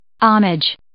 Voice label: "homage", English

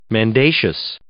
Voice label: "mendacious", English

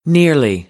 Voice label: "nearly", American English; ɹ - ə

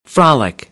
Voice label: "frolic", American; ɑː - ɒ

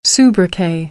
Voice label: "sobriquet", American, English; oʊ - əʊ

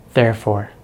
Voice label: "therefore", American English; easypronunciation

